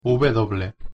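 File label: "w", Spanish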